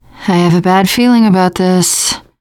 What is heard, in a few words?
reaction apprehensive, teen girl; player; survivor; voice